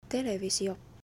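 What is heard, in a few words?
Finnish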